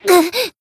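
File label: Voice damaged, Japanese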